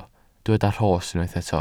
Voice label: "rhos", welsh